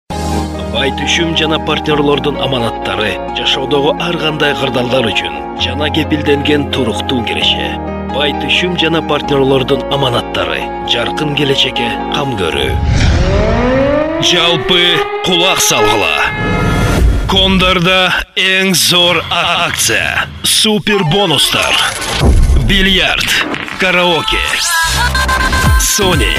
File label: kyrgyz male